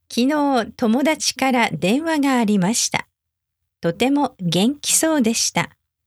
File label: sentence normal speed, Japanese